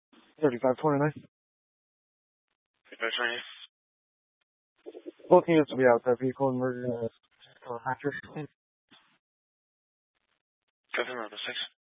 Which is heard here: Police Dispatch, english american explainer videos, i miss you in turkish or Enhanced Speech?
Police Dispatch